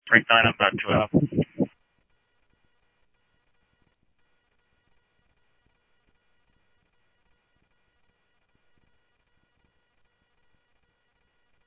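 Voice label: police department, scanner audio trunk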